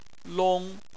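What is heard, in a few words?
"long" first tone, Chinese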